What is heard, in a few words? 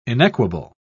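"inequable", British English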